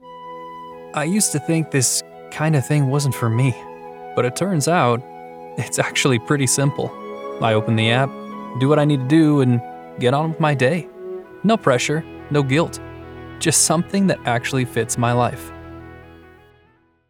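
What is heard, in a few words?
Conversational Real Person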